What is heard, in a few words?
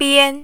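"bian" tone 1, Mandarin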